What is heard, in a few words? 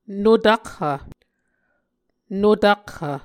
"notakhv", Muscogee